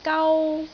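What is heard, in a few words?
"gau1", Cantonese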